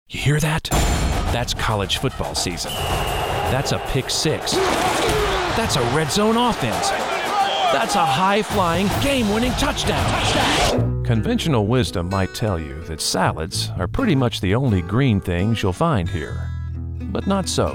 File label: Commercial